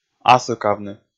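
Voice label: "асыкавны", Komi, male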